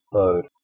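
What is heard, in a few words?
"bhoud", Proto-Indo-European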